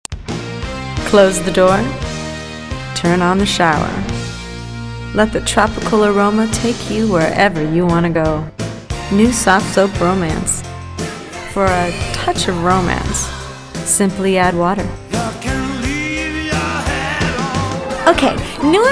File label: commercial voice over